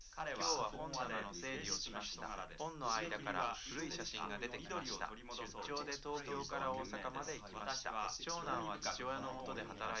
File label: male liverec 250ms 5cm